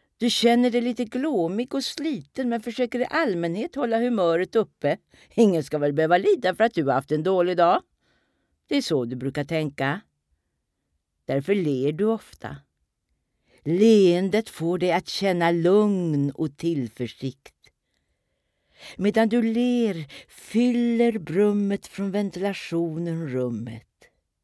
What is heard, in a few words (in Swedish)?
svensk kvinna